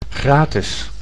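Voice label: "gratis", Dutch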